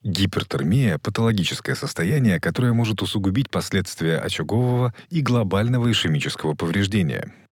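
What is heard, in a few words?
Russian, video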